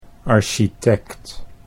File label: "architect", Dutch